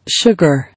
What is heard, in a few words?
"Sugar", English